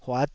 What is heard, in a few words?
"huat5", Taiwanese syllable